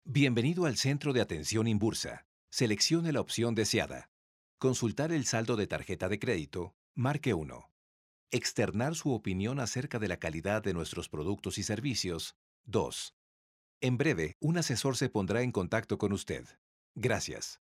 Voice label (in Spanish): mensaje para conmutador; inbursa